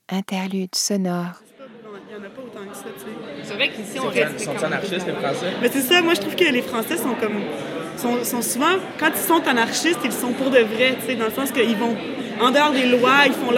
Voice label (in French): La politique française avec l'accent du Québec; ARTE radio; Sexe, drogue et Vote Utile